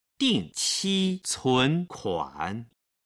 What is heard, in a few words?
"dingqi cunkuan", Chinese